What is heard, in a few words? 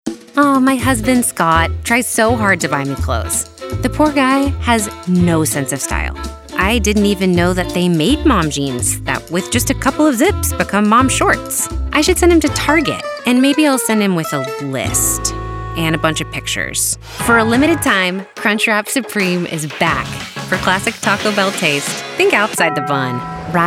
Commercial